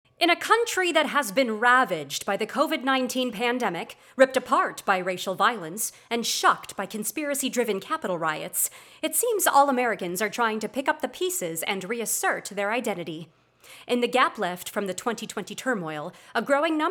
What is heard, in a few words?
News Report